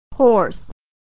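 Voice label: "horse", American English; Homophones; hoarse / horse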